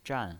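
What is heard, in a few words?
"zhan" Mandarin Chinese pinyin voice, fourth tone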